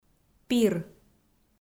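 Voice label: "пир", Komi, female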